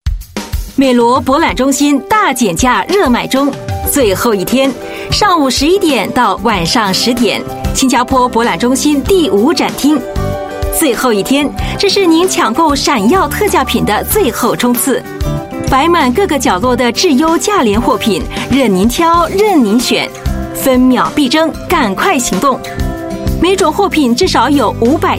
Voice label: Mandarin TVC, Mandarin China Female

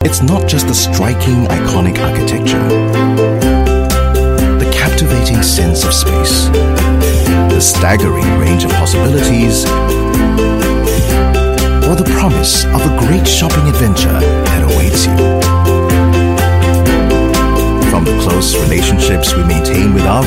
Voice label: English Singaporean Male

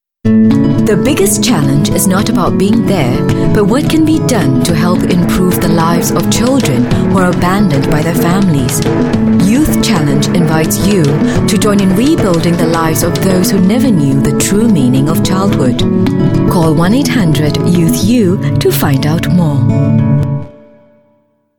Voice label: English Singaporean Female

